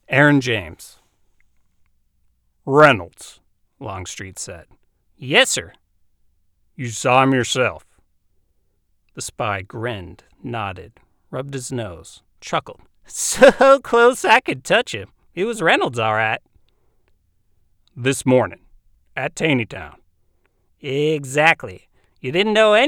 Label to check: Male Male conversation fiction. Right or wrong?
Right